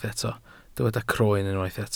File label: "croen", welsh